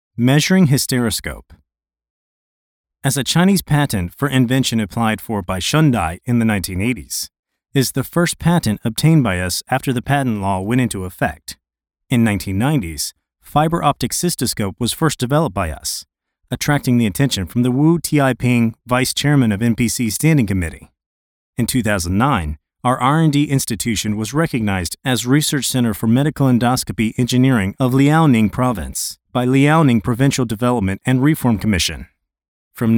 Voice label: 宣传片解说词 英文; 沈大

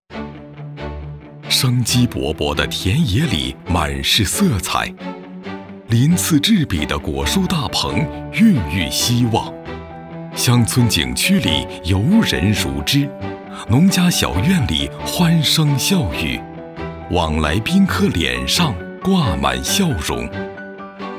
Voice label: 男 汇报片 乡村振兴类 浑厚大气 沉稳坚定

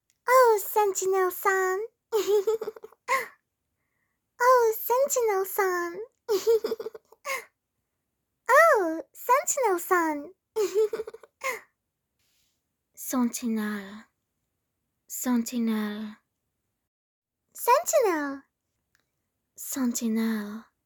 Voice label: 外籍女声 英式童声 可爱乖巧 萝莉音

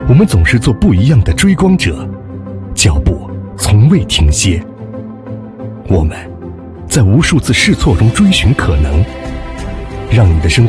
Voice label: 男 科技感宣传片; 梦想生活

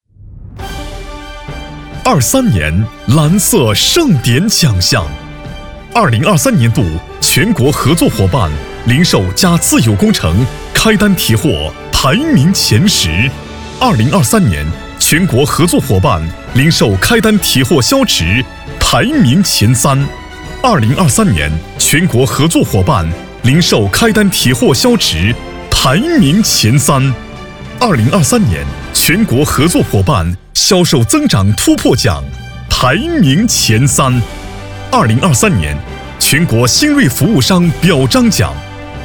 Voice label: 男,颁奖; 蓝色盛典

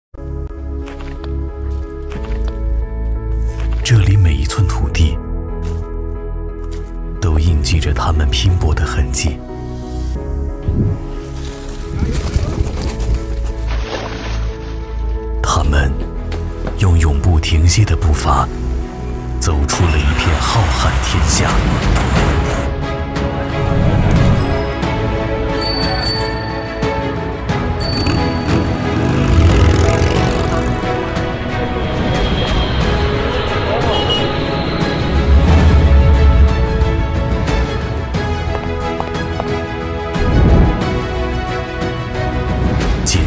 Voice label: 男 城市宣传片; 温州城市形象片

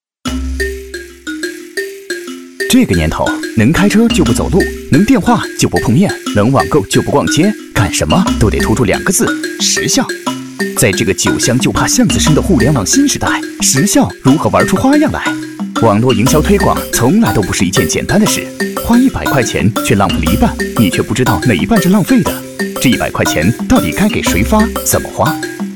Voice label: 男 飞碟说

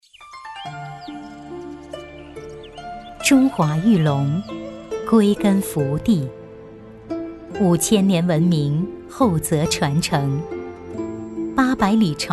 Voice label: 女 广告 黄桃; 含翠黄桃

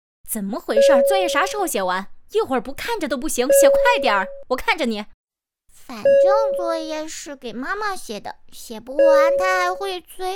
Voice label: 剧本 短剧配音

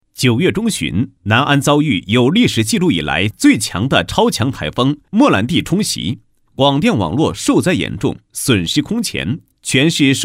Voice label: 男; 工作-南安分公司灾后重建

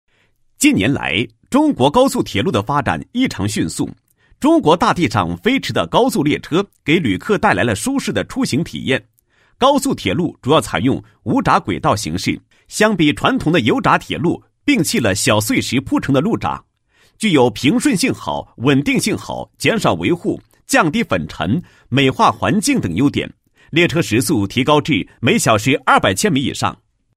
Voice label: 男 科技解说 无砟轨道充填层自密实混凝土及施工技术解说配音

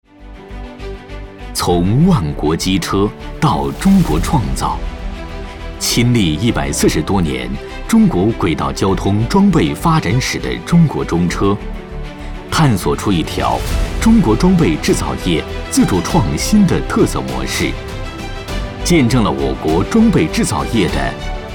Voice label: 男 广告; 中车G

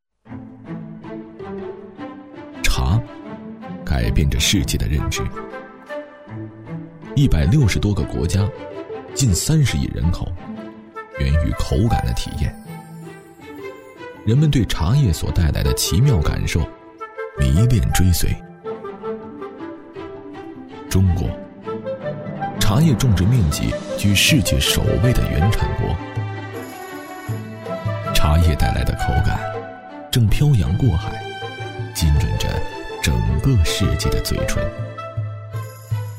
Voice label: 男 纪录片 茶的世界

